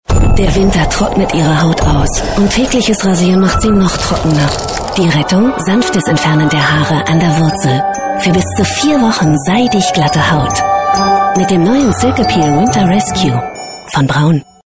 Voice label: TV Spot, werbung; Braun; Winter Rescue

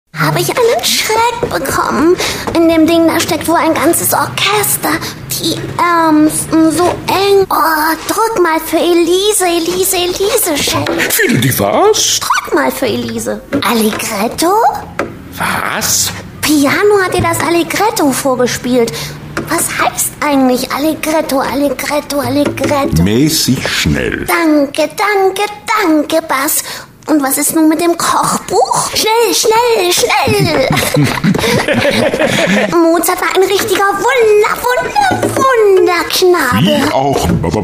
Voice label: Trickstimme Instrumentenfabel Viola

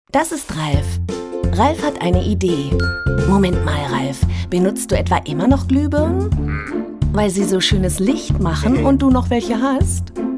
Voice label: Erklaerfilm; Eco Top Ten

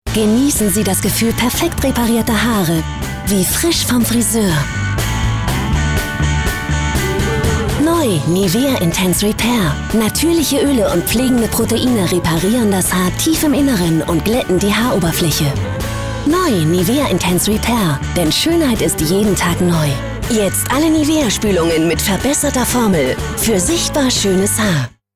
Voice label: TV-Spot, werbung; Nivea; Intense Repair

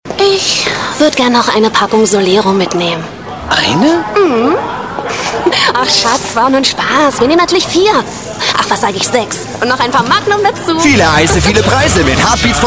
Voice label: TV-Spot, werbung; Langnese

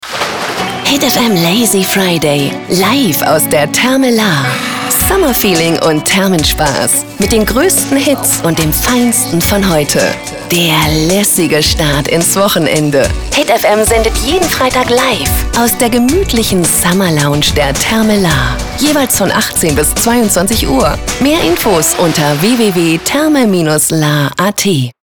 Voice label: Stationvoice Promo; HitFM; Lazy Friday

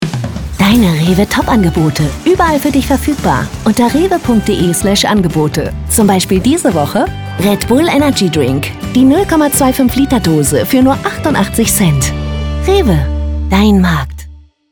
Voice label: TV Werbung; REWE

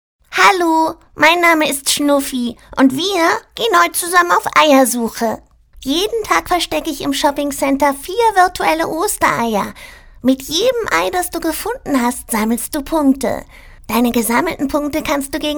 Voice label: Trickstimme Osterhase; Shoppingcenter CH

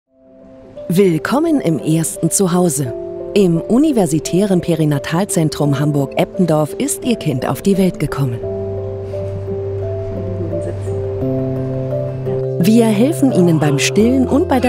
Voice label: Klinikfilm; imagefilme; UKE Hamburg

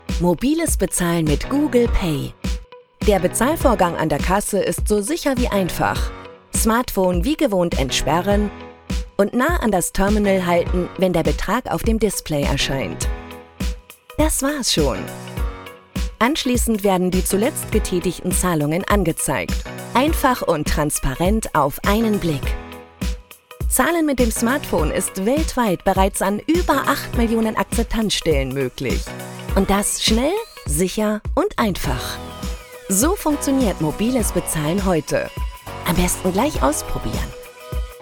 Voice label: Imagefilm; Mastercard

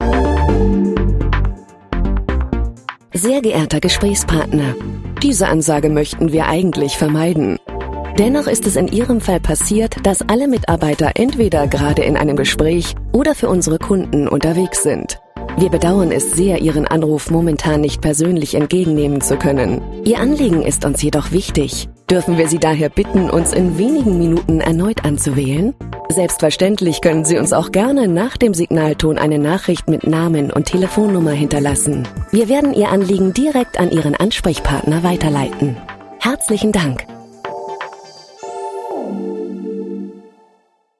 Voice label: Telefonansage; PSB IT

